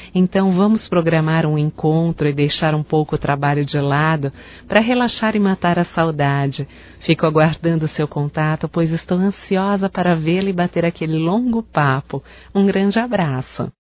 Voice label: SAUDADES AMIGA, fem, Só Voz

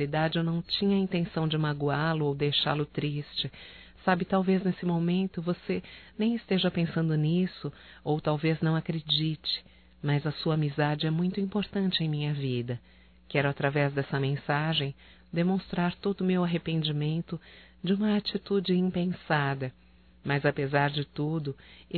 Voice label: DESCULPAS AMIGO, Só Voz, fem; Telemensagens; AMIZADE

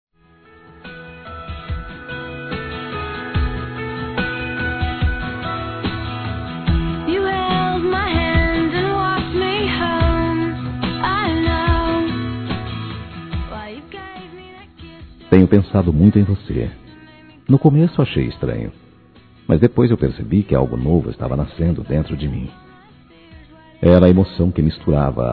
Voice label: Conquista Masculino, Cantadas; Telemensagens; Conquistas Cantadas paqueras; Telemensagens 2017

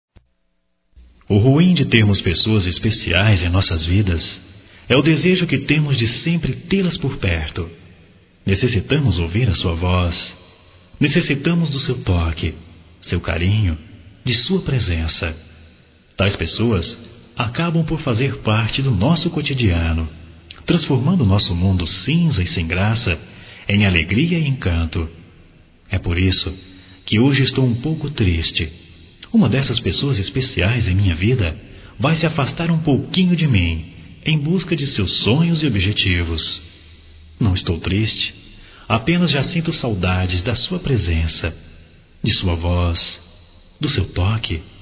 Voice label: Despedida Geral Masculino, Só Voz; Telemensagens; Telemensagens 2017

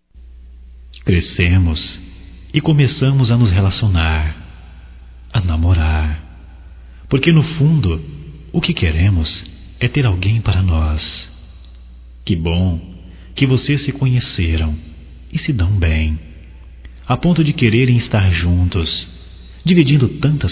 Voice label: Parabéns Pelo Noivado Masculino

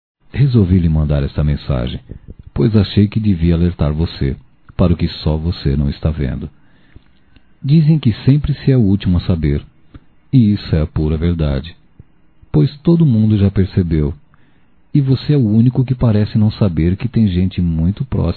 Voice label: Cuidado com sua Namorada Masculino, Broncas; Telemensagens 2017

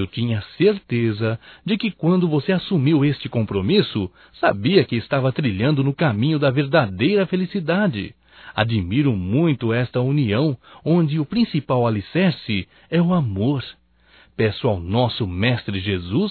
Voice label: ANIV CASAMENTO AMIGO (M); Casamentos

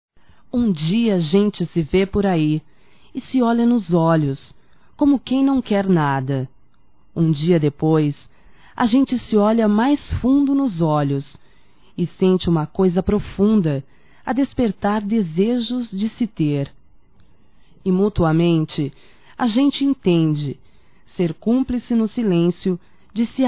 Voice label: ROMANTICA SENSUAL(F), fem

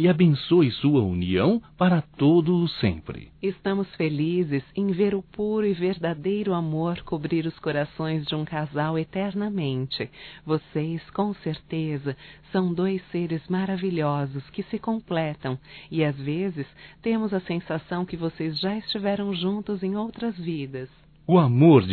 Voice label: CASAMENTO AMIGO DUAS VOZES, Só Voz; Telemensagens; AMIZADE; fem

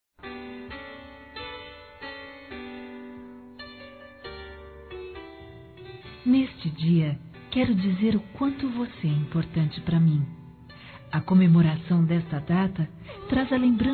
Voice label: Romântico Suave Feminino; Aniversários; Aniversários diversos

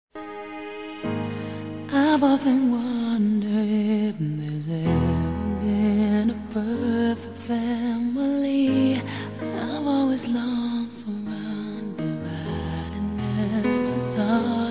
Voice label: NEUTRO, masc

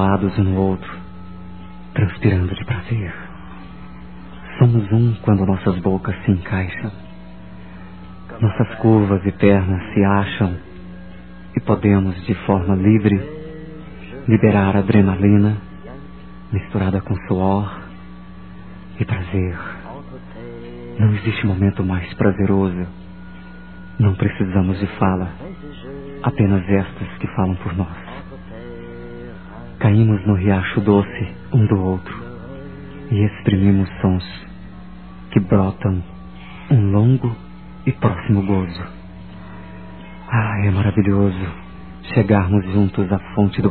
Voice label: Adoro Cada Parte do Seu Corpo - M, Telemensagens Sensuais masc

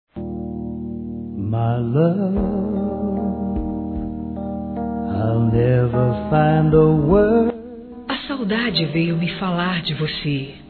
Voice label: Saudades Romântica Feminino, Telemensagens; Românticas

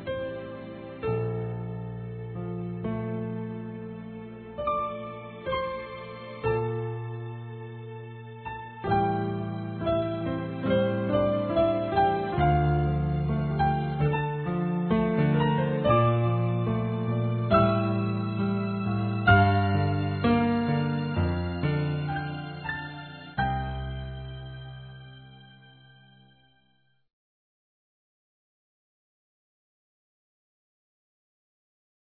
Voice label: NÃO COMPARECER A SUA FESTA DE ANIV. M; Telemensagens 2017

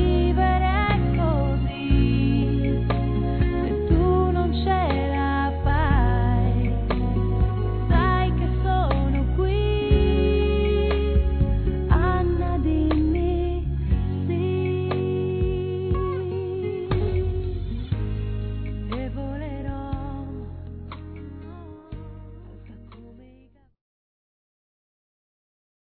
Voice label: CASAL, Telemensagens, Páscoa

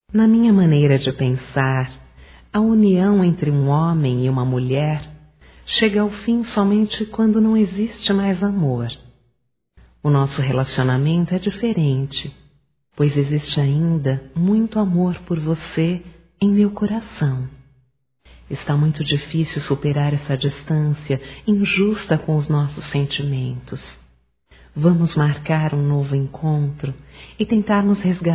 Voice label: Reconciliação Feminino

